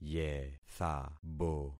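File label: "yethabo", concatenated syllables; Affixing experiment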